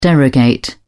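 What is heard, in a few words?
"derogate", British English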